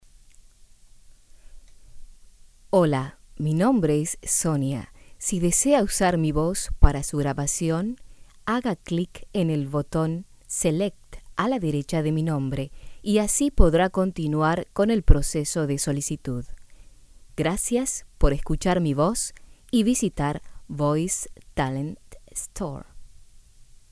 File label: Voice over Sample